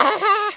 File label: pain; hunger; hungercrab